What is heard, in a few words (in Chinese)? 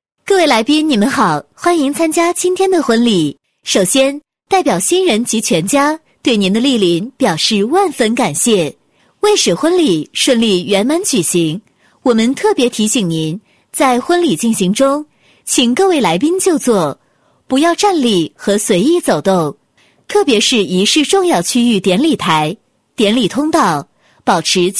女 英语 婚礼现场提示, 国语女声配音 双语配音